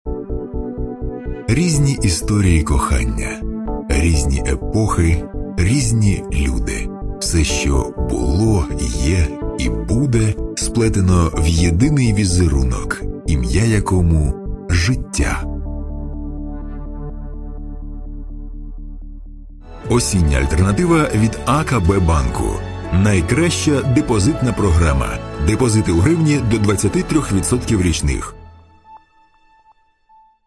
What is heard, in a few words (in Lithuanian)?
Ukrainieciu balsas, vyras